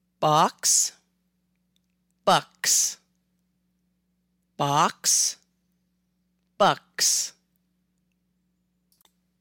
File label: Box Bucks, long and short vowels in American English